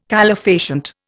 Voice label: "calefacient", Indian